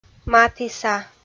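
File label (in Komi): "матіса", Komi-Zyrian, female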